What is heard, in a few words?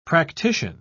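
"practician", English, British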